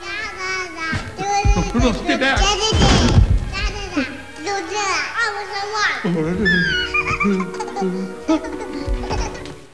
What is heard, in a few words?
baby talk